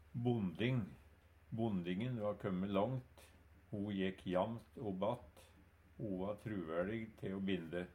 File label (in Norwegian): "bonding", Numedalsmål